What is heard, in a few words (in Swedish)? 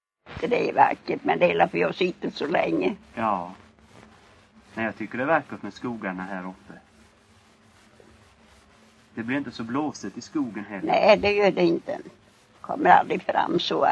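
Vireda Småland, Svenska sagor dialekter; Folkminnen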